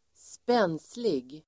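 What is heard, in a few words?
"spenslig", Swedish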